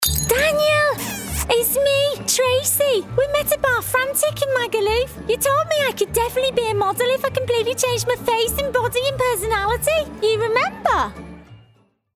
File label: Animation Liverpool Party Girl